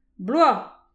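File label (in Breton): "bloaz", Breton; petit precis de prononciation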